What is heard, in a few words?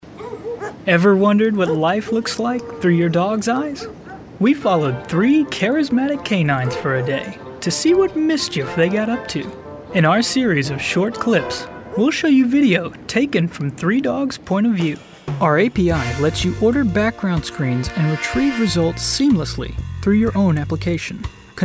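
Narration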